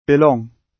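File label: "belong", British English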